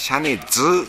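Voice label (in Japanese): "shane", 南山形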